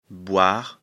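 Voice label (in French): "boire", French; afrikalan; lecture fr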